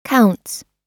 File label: "counts", us